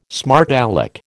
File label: "smart alec", American